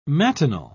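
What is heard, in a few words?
"matinal", British English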